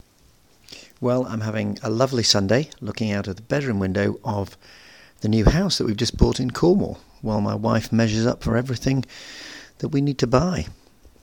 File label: Cornish